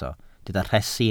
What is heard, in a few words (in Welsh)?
"rhesi", Welsh